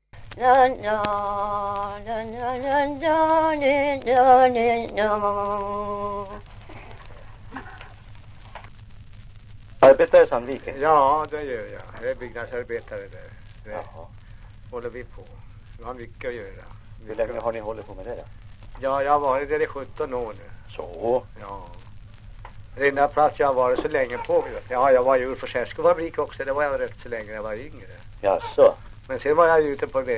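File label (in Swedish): Ovansjö Gästrikland, dialekter, svenska sagor; Folkminnen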